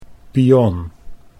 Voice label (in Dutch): "pion", Dutch; chess